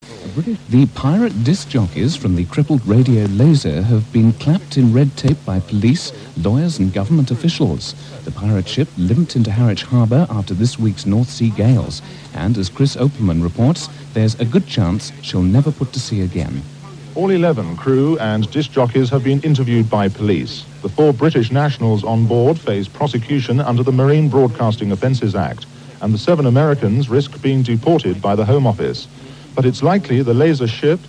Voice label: News; IRN; Laser off air